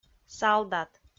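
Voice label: "салдат", Komi, female; kpv; audio dict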